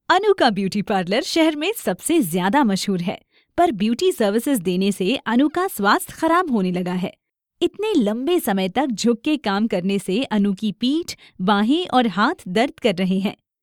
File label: hindi commercial; India; Hindi English (Indian); Indian voice-over artist